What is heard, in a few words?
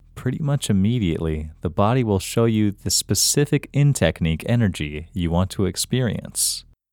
Male